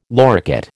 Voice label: "loricate", American